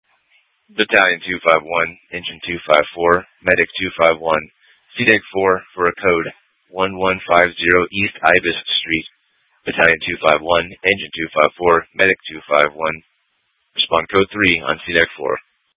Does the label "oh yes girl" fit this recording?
No